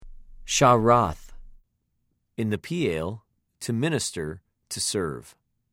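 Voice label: "שָׁרַת", Hebrew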